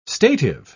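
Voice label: "stative", British English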